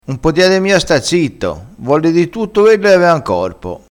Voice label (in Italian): "potiede", crespinese